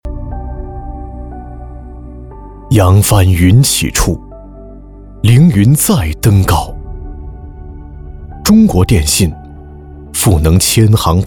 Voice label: 男 微电影 独白企业微电影

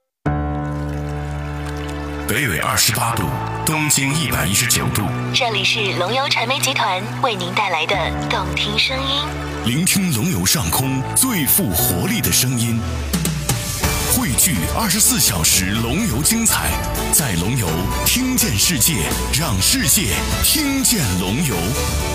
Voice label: 男 电台台标; FM95.4龙游

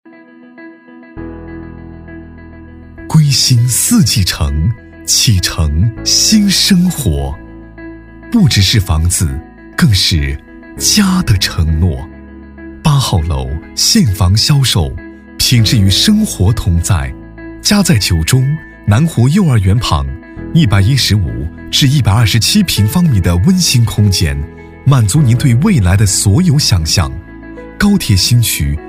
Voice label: 男,地产,温暖房产; 归心四季城

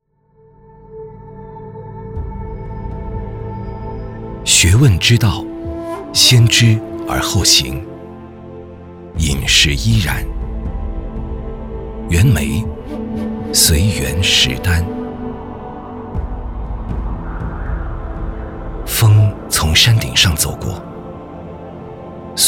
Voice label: 男 纪录片 舌尖质感纪录片; 环县羊肉

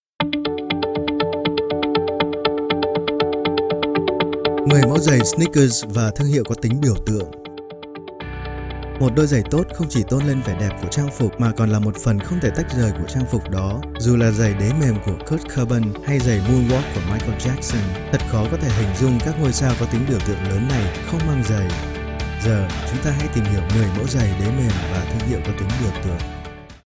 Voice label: 越南语男

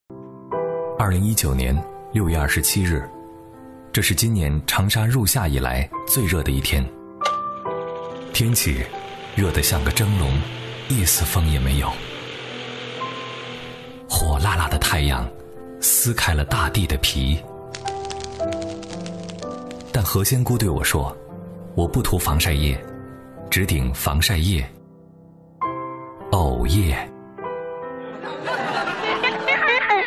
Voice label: 男, 视频, 宣传广告记录篇

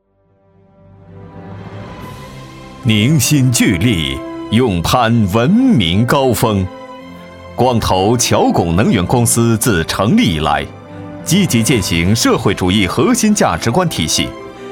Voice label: 男 党建专题

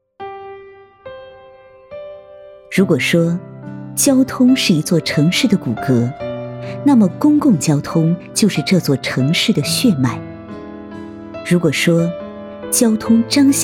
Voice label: 讲述 感情成熟; 巾帼文明岗

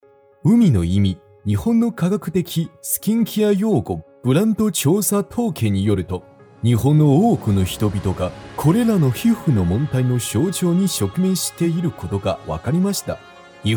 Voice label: 男 日语专题 浑厚磁性

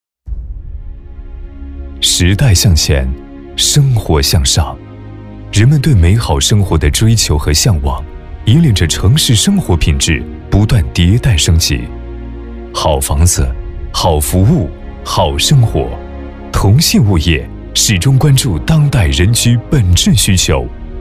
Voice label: 男 专题 大气 品质; 同信物业

